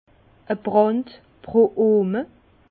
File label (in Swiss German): "brand", Bas Rhin; vin